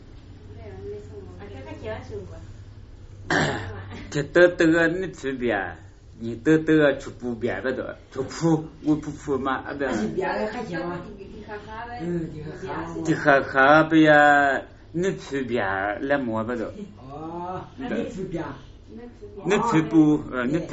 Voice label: lisu talk